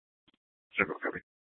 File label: Dispatch, scanner; Mesa PD; 4 Superstition Dispatch